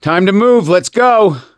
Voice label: revive friend loud, voice; gambler